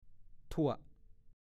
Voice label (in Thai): "ทั่วะ", ภาษาโคราช; ซิ เถอะน่า